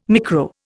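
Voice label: "micro", English, Indian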